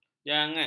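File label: "jangat", Malay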